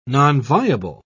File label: "nonviable", en uk